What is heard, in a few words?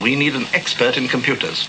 we need expert in computers, male spoken word; MALE MISC